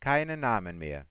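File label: no more names, German, Voice Sounds; Samsung SGH-i310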